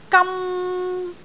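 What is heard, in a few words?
"gam1", Cantonese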